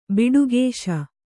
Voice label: "ಬಿಡುಗೇಶ", Kannada

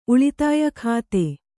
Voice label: "ಉಳಿತಾಯ ಖಾತೆ", kannada

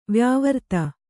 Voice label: "ವ್ಯಾವೃತ್ತಿ", kannada

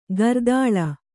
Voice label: "ಕರತಾಳ", kannada

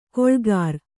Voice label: "ಗಳೆಕಾರ", Kannada

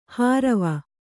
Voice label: "ಹಾರವ", Kannada

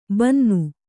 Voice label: "ಭಿನ್ನ", Kannada

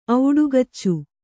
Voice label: "ಔಡುಗಚ್ಚು", Kannada

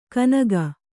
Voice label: "ಕುನಿಕೆ", Kannada

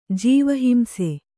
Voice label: "ಜೀವಹಿಂಸೆ", Kannada

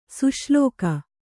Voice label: "ಸುಶ್ಲೋಕ", kannada

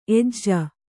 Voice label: "ಎಜ್ಜ", Kannada

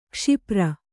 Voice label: "ಕ್ಷಿಪ್ರ", Kannada

